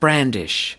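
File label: "brandish", US English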